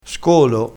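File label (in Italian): "scolo", dialetto crespinese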